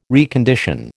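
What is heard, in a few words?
"recondition", American English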